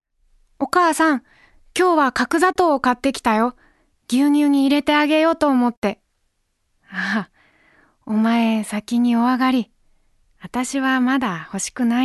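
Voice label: Voice Sample Narration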